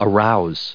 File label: "arouse", us